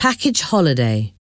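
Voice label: "package holiday", English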